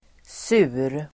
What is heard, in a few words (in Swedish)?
"sur", Swedish